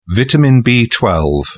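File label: "vitamin b12", British English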